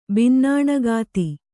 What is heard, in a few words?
"ಬಿನ್ನಾಣಗಾತಿ", Kannada